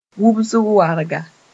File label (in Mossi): "wubs-warga", moore